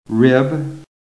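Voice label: "rib", American English; PHONETIC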